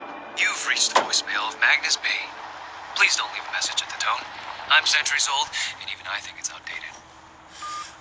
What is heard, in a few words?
voicemail